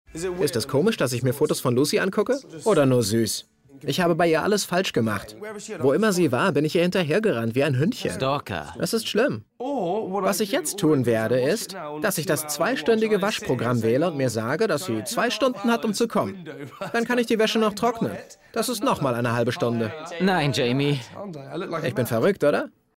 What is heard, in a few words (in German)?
Doku; LOFT VOICES